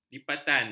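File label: "lipatan", Malay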